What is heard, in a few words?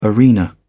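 "arena", English, British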